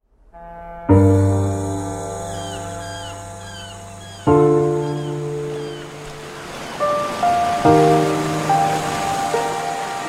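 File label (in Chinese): 宣传片_地产_稳重; 东山岛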